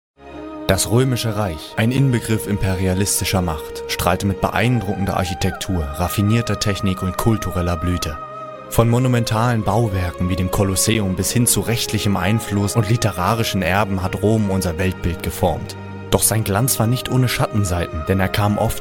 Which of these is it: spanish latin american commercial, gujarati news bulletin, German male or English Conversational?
German male